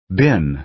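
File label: "been", American English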